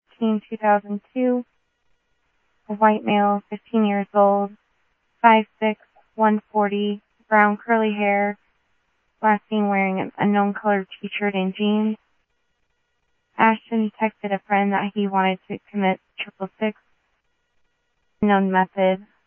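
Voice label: Sheriff East County, scanner audio; ThompsonPeakScot; MCSO - EAST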